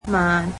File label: "slowly", cantonese